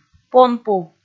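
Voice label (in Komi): "понпув", Komi, female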